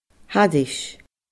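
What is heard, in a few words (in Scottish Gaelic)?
"thairis", Scottish Gaelic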